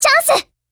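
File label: ATTACK, Japanese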